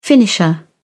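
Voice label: "finisher", British English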